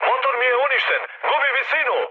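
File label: Serbian damaged engine mood med, speech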